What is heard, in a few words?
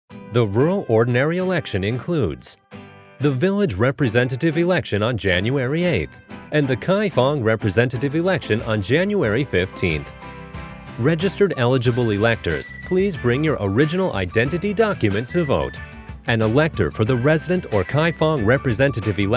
Radio; Radio Announcement; Polling Day; ENG